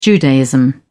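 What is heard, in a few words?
"judaism", British English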